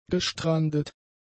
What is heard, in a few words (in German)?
"gestrandet", german; adjectives